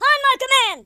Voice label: "orders", Drill Sergeant; speech